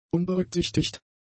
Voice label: "unberücksichtigt", German; adjectives

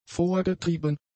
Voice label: "vorgetrieben", German; adjectives

